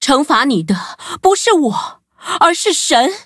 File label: level up, zh-hant; cookie run